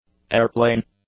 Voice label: "airplane", English; nouns

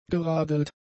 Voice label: "geradelt", German; adjectives

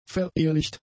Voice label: "verehelicht", German; adjectives

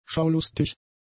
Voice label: "schaulustig", german; adjectives

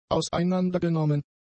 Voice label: "auseinandergenommen", German; adjectives

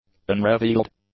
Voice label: "unrevealed", english; adjectives

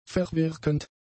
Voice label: "verwirkend", German; adjectives

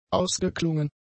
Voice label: "ausgeklungen", German; adjectives

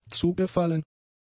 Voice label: "zugefallen", German; adjectives

